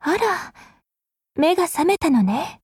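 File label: Japanese; Groza; Dreamscape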